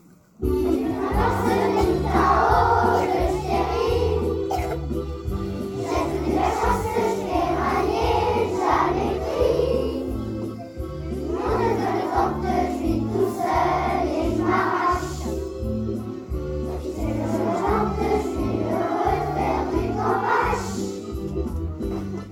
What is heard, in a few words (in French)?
Patois ecole